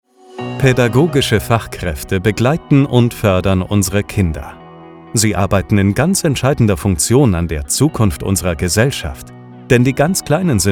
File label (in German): warm nahbar